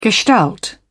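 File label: "gestalt", British English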